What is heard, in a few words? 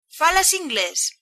do you speak english, galician